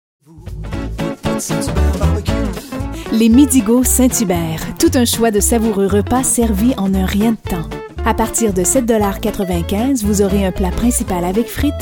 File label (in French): annonceur